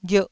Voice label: "ghierh", Taiwanese